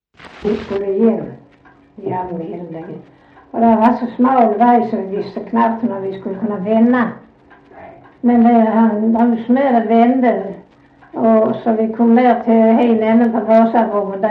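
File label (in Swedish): Sövde Skåne, Svenska sagor dialekter; Folkminnen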